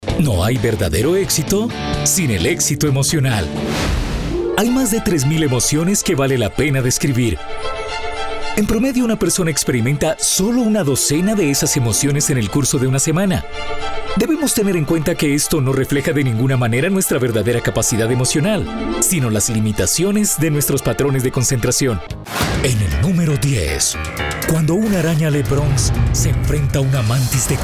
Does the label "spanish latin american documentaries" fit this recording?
Yes